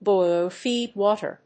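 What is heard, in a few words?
"boiler feed water", English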